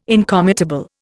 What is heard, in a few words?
"incommutable", Indian